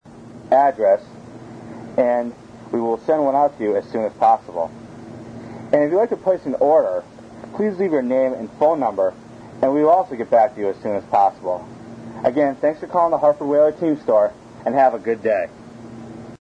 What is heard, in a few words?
Team Store Answering Machine, Outgoing; Whalers Team Store; It was April 1997, and the Hartford Whalers Team Store was in the process of shutting down